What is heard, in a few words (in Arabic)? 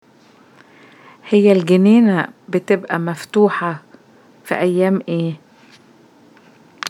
في أي أيام الحديقة مفتوحة Egyptian; question 3 which dialect أي لهجة؟